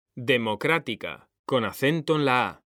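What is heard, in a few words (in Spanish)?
"democrática", Spanish; mekanta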